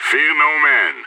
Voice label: pep talk; idf